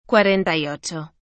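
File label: "cuarenta y ocho", Spanish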